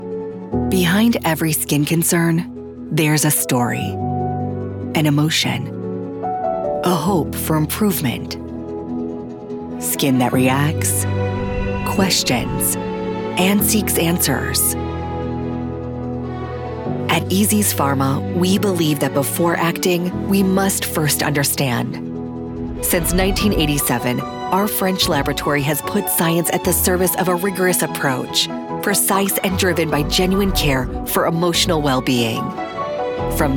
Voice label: english american narration